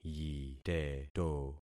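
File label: "yiteto", concatenated syllables; Affixing experiment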